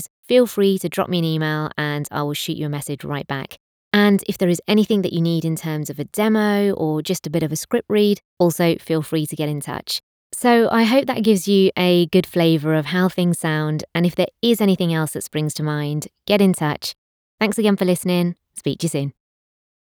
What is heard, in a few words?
Studio Sample